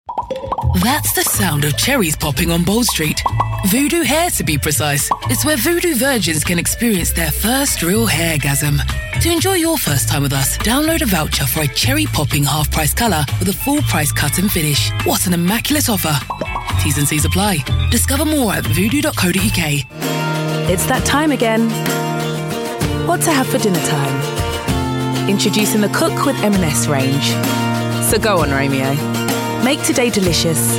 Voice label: radio ads

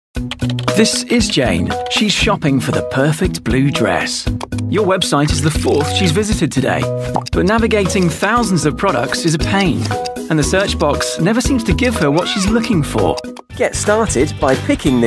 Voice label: explainer

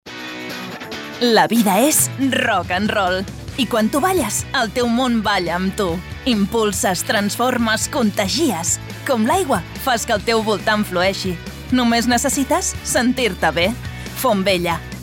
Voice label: catalan commercial catalan

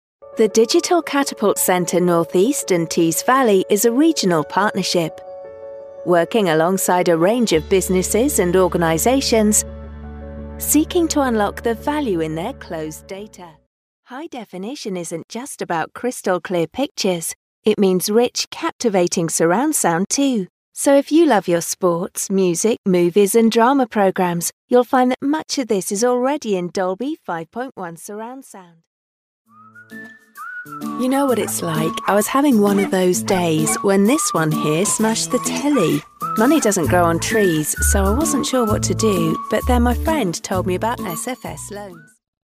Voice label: corporate

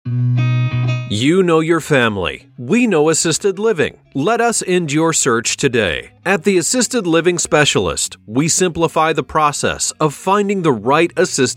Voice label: american commercial

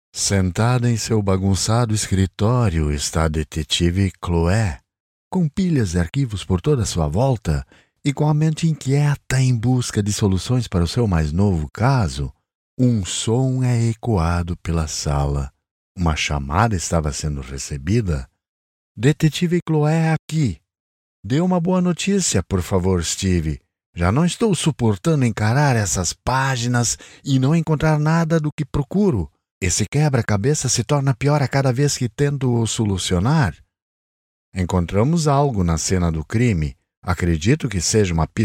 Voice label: Brazilian audiobook

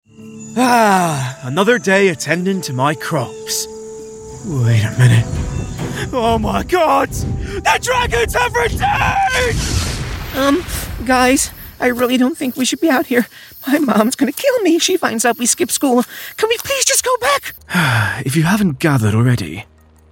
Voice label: westcountry bristol voice character

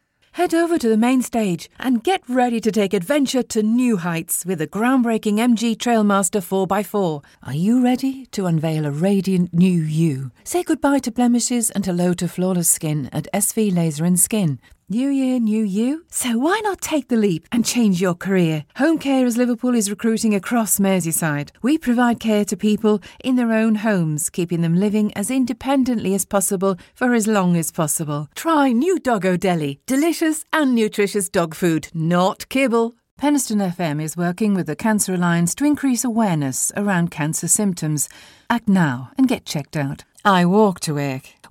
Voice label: commercial

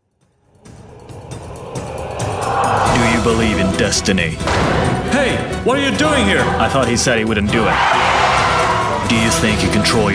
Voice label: french male movie trailer en

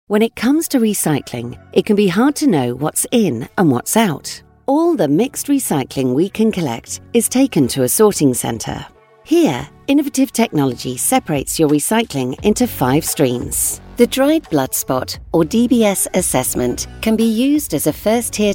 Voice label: corporate, voiceover

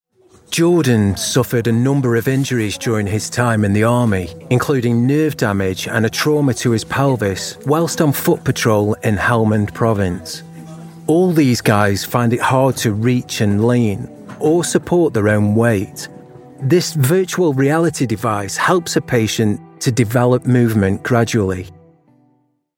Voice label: documentary

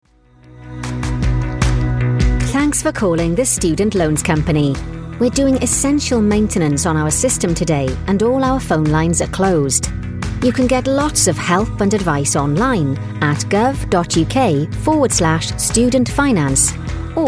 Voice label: telephony

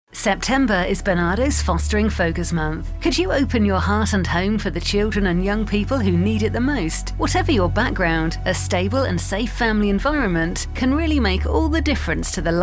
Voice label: commercials, Engaging Versatile Female Voice

